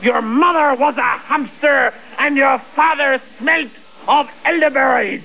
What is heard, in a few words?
male taunt; starsiege